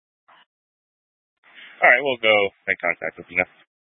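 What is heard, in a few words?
Police Dispatch, scanner audio recording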